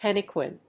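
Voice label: "henequen", English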